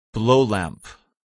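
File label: "blowlamp", English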